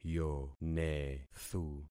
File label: "yonethu", concat syllables; Affixing experiment